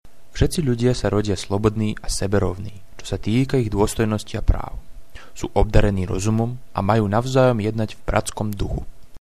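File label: udhr sk, Slovak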